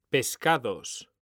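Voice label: "pescados", Spanish, male; mekanta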